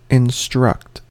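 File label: "instruct", American English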